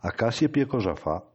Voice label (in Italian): bergamasco